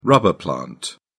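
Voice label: "rubber plant", British English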